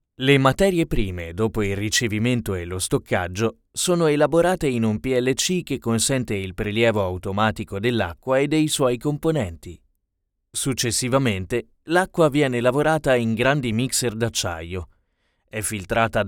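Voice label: elearning voice-over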